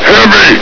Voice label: "heavy!", hgrunt